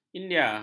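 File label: "india", Malay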